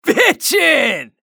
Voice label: chatter positive; anarki; frag